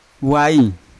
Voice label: "wail" rope, Yawa

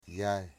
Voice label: "pig", Wamut

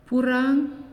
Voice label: "Fly", Naasioi

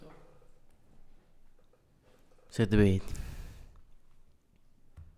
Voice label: egg chicken, zëtbehdy, Santiago Matatlán Zapotec; huevo (de un pollo)

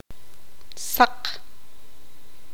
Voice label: tzutujil; blanco

